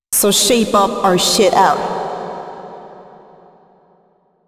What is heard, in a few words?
female c#132bpm, spoken hooks; Mutekki Media; Dance Ultra Voice VOL-1